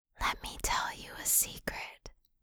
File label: asmr girl let me tell you a secret; ringtone; asmr ringtones